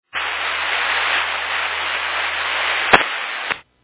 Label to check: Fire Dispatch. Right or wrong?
Right